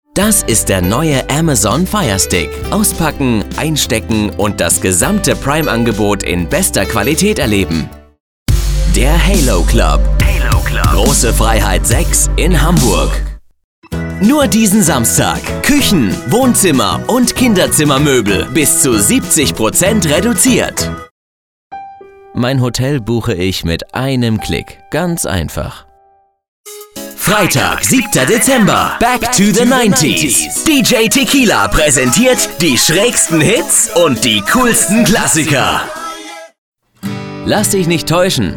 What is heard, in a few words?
German Voice Over